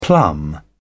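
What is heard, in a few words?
"plumb", British English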